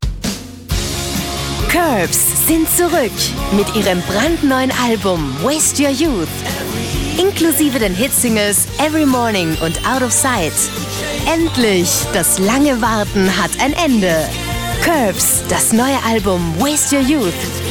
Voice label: AT W Fremdtake Lebendig

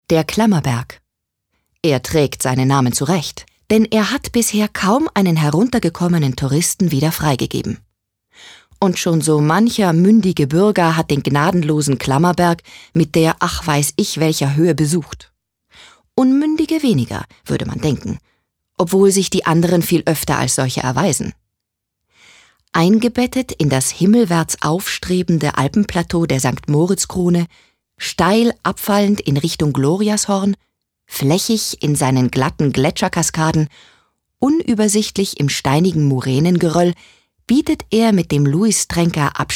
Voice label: Kommentar Neutral

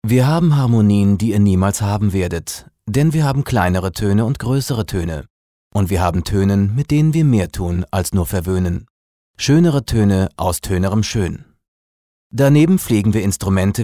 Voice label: Schauspiel Neutral

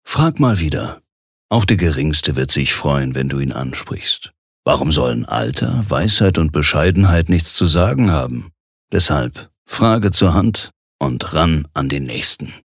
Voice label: Werbung Cool

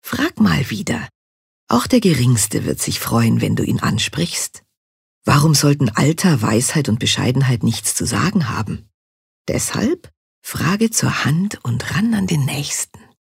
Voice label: Werbung Sanft

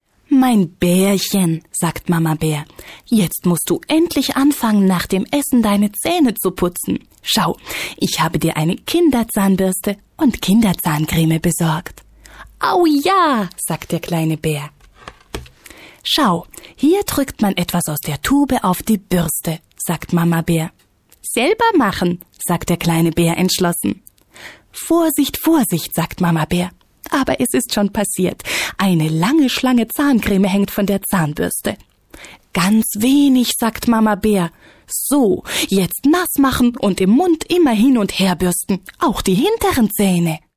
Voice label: Schauspiel Niedlich

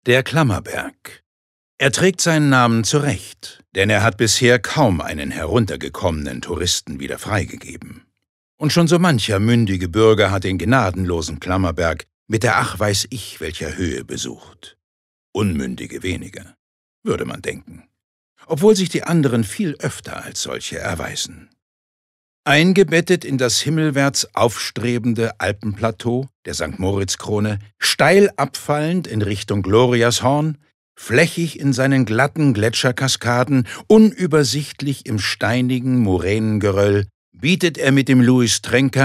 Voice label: Kommentar Neutral

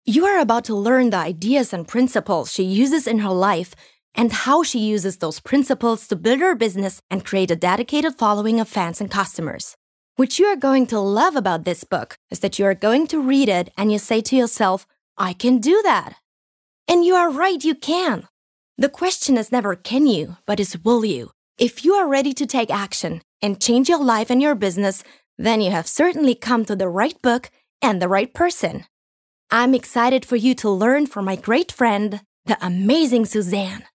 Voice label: Neutral; EngUS

